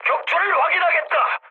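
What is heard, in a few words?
Korean player killed enemy mood high, speech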